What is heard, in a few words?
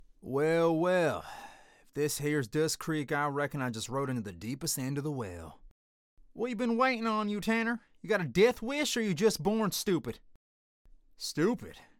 Southwestern Accent